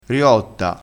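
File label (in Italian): "riotta", dialettale, crespinese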